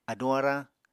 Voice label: "aduñ-arañ", apatani

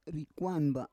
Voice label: "rikwan ba", Roon

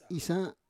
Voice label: "isa", Roon

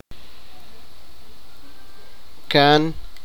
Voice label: sipakapense; mother s younger brother

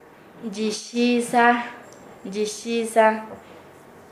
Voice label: "hacer un rito", Nasa Yuwe; paez

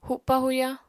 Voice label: "hupa-huya", Apatani

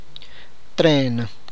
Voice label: "train", tlacochahuaya

